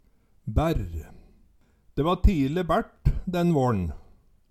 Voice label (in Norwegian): "bærr", Numedalsmål; Eksempel på bruk Det va tile bært den vårn